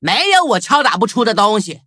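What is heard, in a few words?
upgrade, Traditional Chinese; cookie run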